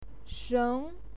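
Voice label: "sheng", Mandarin Chinese, second tone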